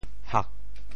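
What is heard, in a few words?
"hak4", Teochew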